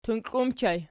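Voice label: "tunkli" ergative plural, Archi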